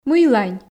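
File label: "мыйлань", Komi, female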